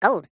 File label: cartoon voice high pitched says "gold"